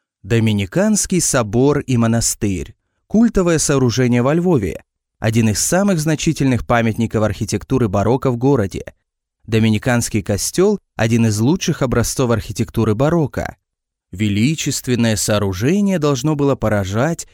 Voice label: russian documentaries

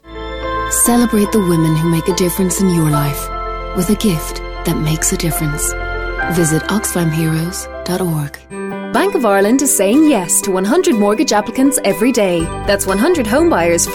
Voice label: english irish commercial

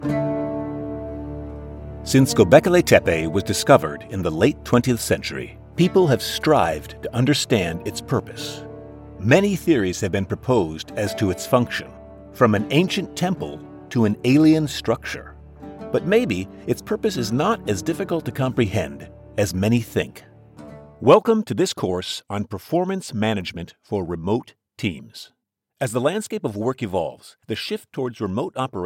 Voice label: english american e-learning

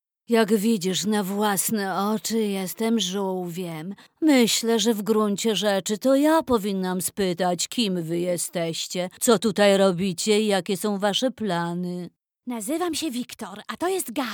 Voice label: polish cartoon animation

